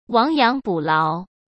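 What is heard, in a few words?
"亡羊补牢", Mandarin Chinese; chinese-flashcards; hsk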